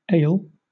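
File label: "ail" British English; pronunciations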